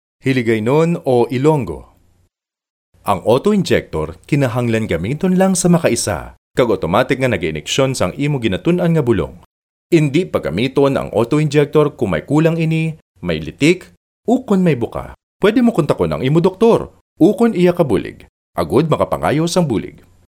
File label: Ilonggo Male